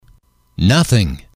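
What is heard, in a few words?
"nothing", English, male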